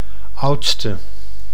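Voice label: "oudste", Dutch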